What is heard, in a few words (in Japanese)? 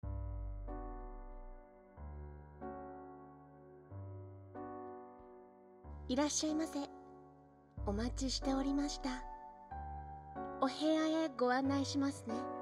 囁き床オナサポート音声